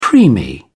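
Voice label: "preemie", English, British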